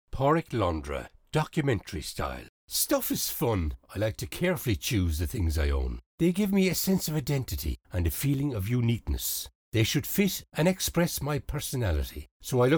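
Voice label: documentary style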